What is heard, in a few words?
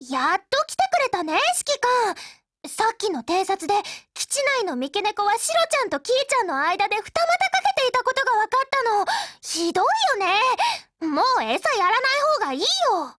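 HELLO, Japanese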